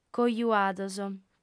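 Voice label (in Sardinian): "cojuados", female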